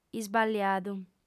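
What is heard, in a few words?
"isballiadu", female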